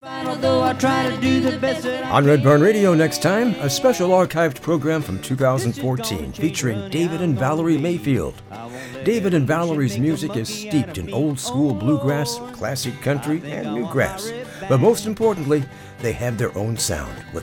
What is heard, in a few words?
Promo